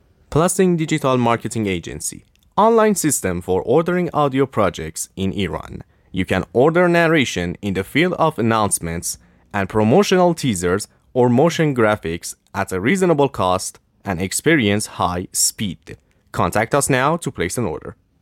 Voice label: narrator english